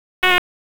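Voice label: Police Southeast District Dispatch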